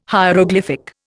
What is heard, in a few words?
"hieroglyphic", Indian